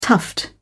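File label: "tuft", British English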